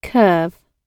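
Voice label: "curve", British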